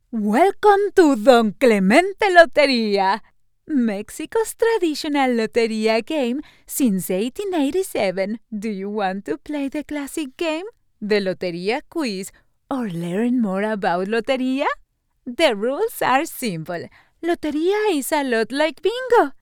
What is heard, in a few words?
english others